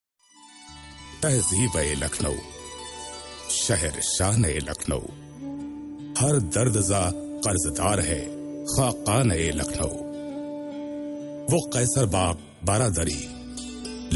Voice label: urdu

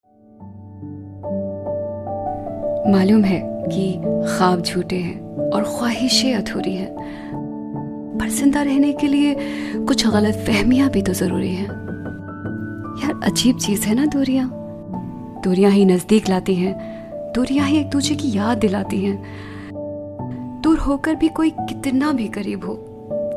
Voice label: Hindi

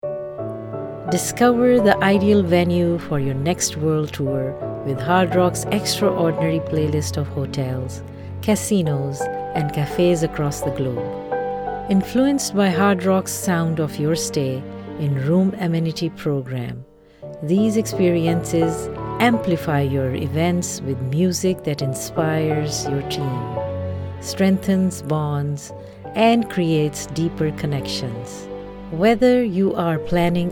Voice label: Commercial English, female voice, baritone voice

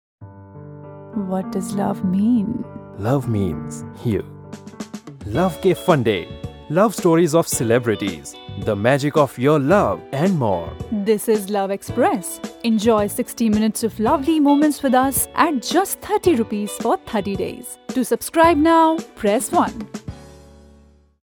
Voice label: ivr english

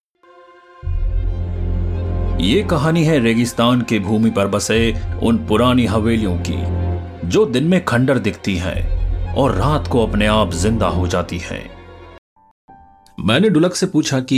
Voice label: Audio Drama

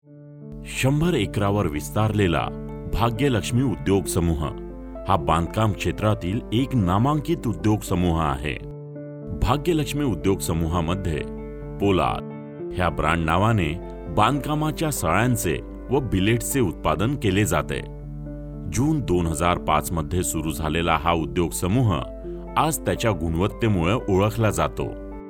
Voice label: Marathi; Bhagyalaxmi

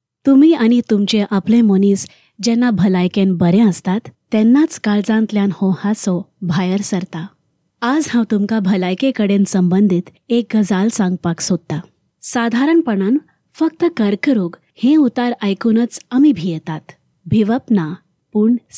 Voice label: konkani narration

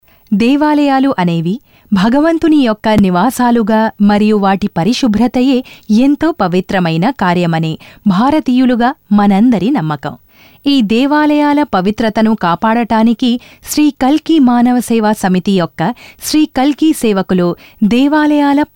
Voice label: Telugu

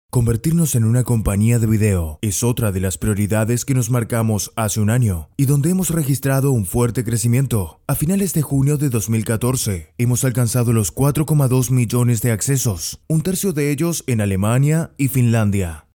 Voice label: narration

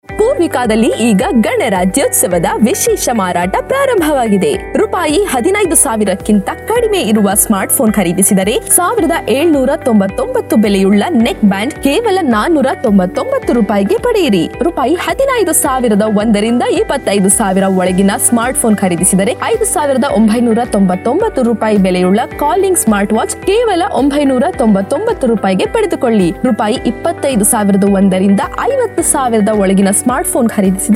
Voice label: kannada

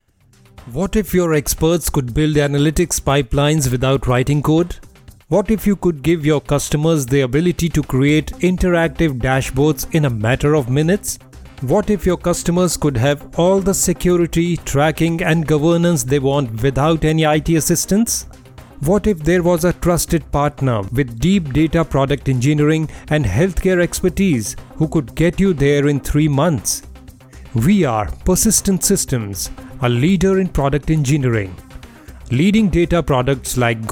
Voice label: corporate eng

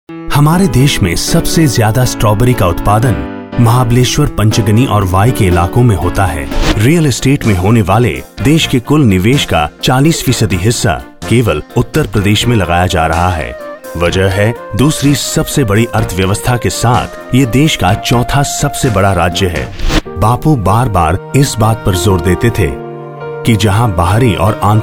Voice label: Corporate Narration Hindi

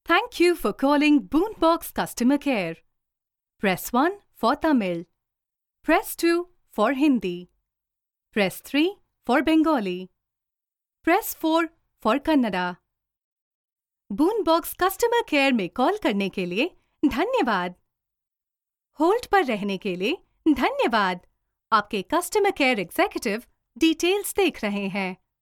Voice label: IVR HINDI AND ENGLISH

